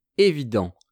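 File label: "evident", French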